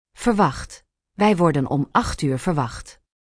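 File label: "verwacht", Dutch; Spelling Gr4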